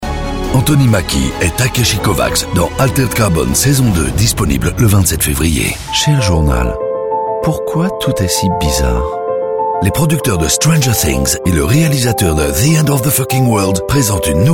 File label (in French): voix off promos